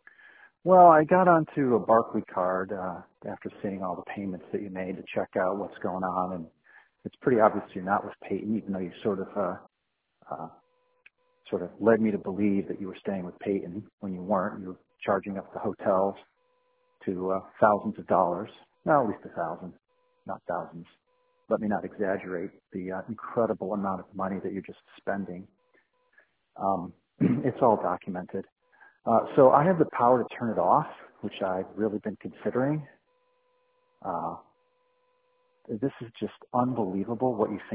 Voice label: voicemail